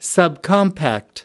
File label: "subcompact", us